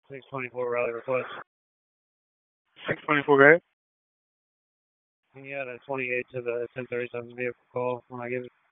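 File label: Raleigh Police Southwest District Dispatch, p25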